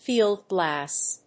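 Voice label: "field-glass", English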